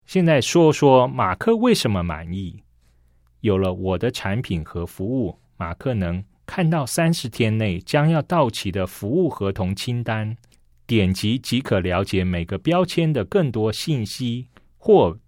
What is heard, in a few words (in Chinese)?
CHINESE